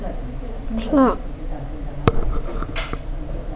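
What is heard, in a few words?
"clock", spoken word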